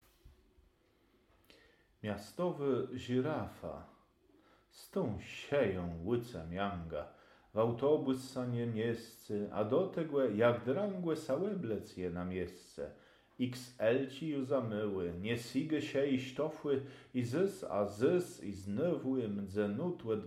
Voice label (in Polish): Miastowo żirafa wymowa